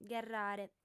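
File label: "gherrare", female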